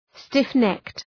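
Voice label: "stiff-necked", English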